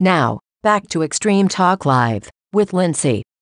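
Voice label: talk live break out female voice; extreme talk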